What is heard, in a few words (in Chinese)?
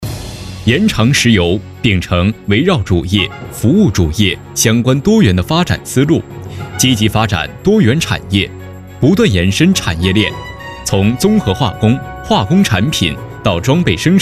专题 企业汇报 石油公司